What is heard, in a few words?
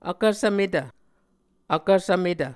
"vkvsvmetv", Muscogee